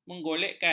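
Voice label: "menggolekkan", Malay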